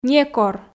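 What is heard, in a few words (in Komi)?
"некор", Komi, female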